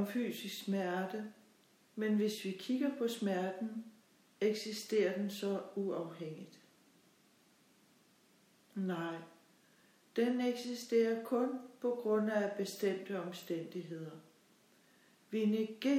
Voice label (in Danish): meditation